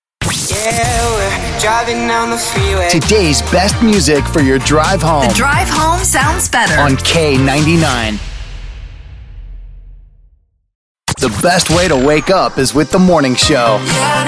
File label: Imaging Hot AC